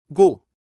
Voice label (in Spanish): Gol en portugues